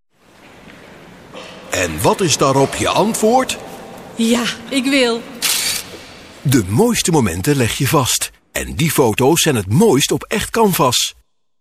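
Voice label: dutch male commercial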